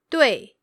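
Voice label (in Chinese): "dui", Mandarin Chinese, female; pinyin traps and pitfalls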